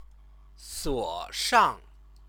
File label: "suoshang", Chinese